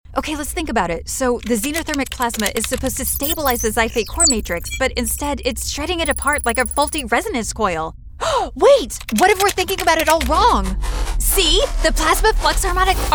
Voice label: video game, English US